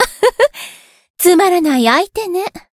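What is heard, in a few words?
win, Japanese; sherinu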